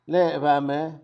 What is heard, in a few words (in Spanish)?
"él o ella (respeto)", Zapoteco Magdalena Ocotlan; Pronombres; INALI; Haspelmath